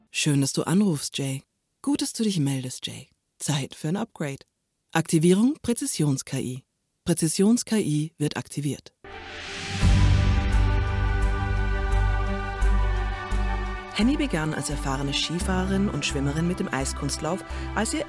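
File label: German, General; voice demos; GoLocalise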